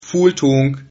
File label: thanks, Sylt Frisian